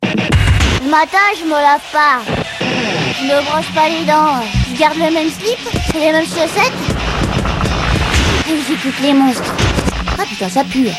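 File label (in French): voix spéciale; skyrock; voix bart simpson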